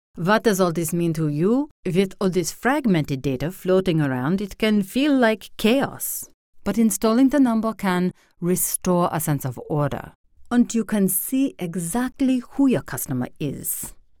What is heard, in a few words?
German